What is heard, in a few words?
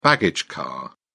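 "baggage car", British English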